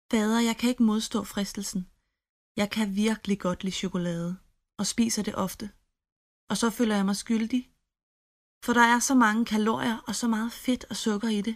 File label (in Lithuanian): (MOT) Danu balsas, moteris